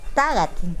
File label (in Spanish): Nawat palabras hombre; pipil